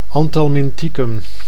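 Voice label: "anthelminthicum", Dutch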